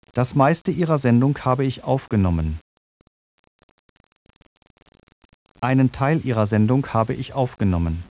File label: SPEECH GERMAN